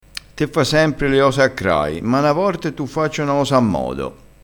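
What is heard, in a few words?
"a crai", Italian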